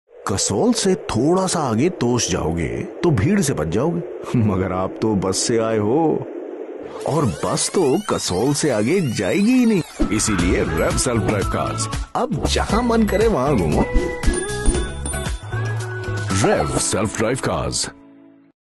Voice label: Commercial

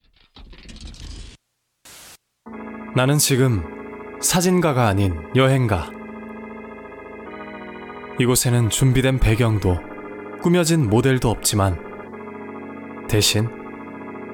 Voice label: Commercial

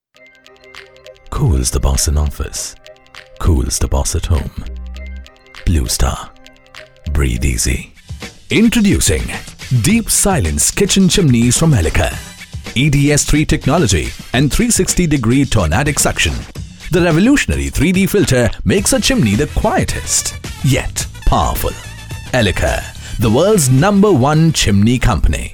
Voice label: Commercials

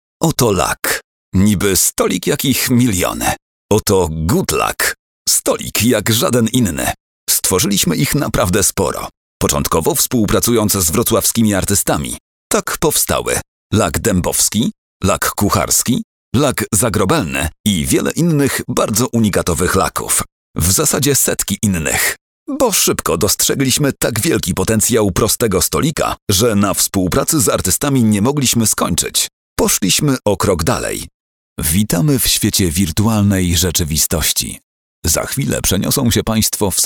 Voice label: Narration

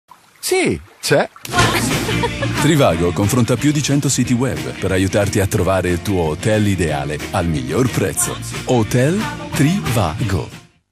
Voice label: Commercial

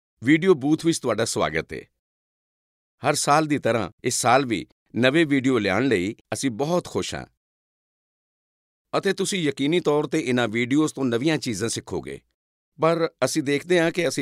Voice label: e learning

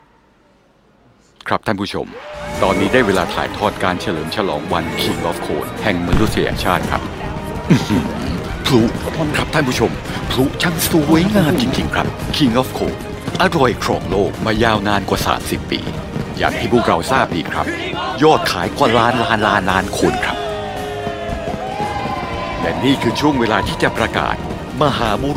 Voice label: Commercial

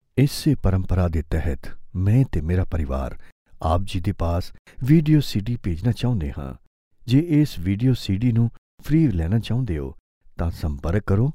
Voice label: Narration

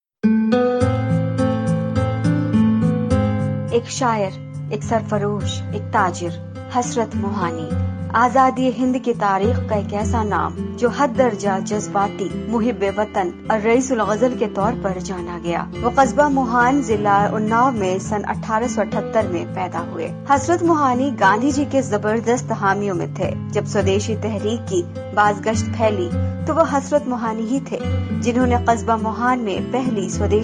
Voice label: Documentary

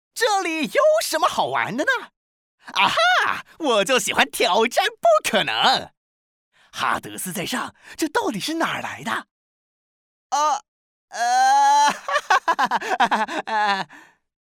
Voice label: Character

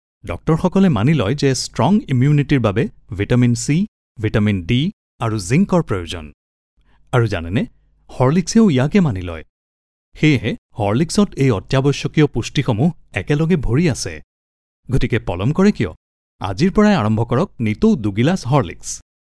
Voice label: Radio Spot

